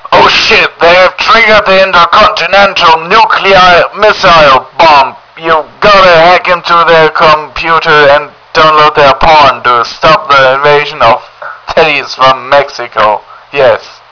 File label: radio; murica